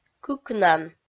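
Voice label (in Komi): "кыкнан", Komi, female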